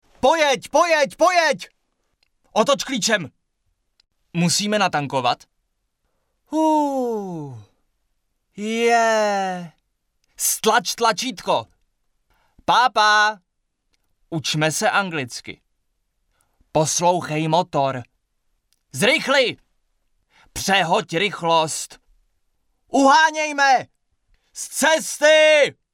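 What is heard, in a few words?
Male Czech drama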